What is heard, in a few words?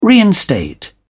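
"reinstate", British English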